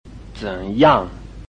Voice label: "zen3yang4", Chinese